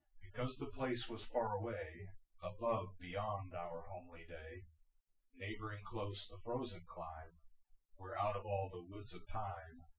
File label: male synthconv 250ms 5cm